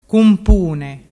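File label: "cumpune", Vernazzese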